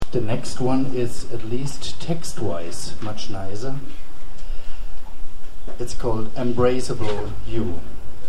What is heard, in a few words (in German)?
Ansage